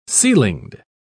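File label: "ceilinged", British English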